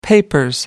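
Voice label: "papers", British English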